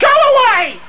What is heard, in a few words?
male taunt; starsiege